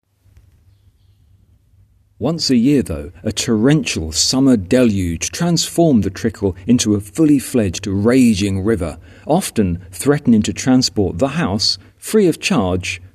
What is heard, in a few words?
voiceover